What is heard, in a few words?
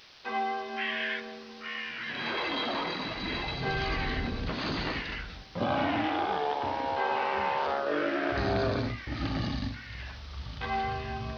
zombie